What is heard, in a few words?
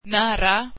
swedish near